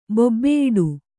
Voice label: "ಬೊಬ್ಬೆಯಿಡು", Kannada